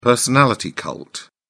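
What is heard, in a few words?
"personality cult", British English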